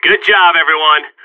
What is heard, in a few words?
radio bot end clean, vo agents seal; Source Model Packs; Counter Strike Model Pack; CS2